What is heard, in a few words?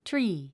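"tree", English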